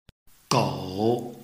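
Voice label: "gou", Chinese, tone 3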